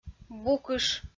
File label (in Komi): "букыш" kpv f